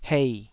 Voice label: "hei3", taiwanese